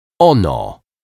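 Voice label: "ono", Polish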